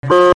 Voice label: glitchy bruh